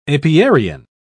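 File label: "apiarian", British English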